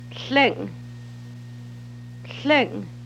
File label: horse or dog, hupa